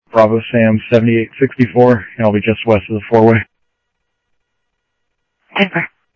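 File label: Sheriff Lake Patrol, scanner audio trunk; ThompsonPeakScot; MCSO Lakes 1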